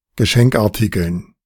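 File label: "Geschenkartikeln", German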